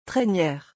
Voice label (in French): "traînière", French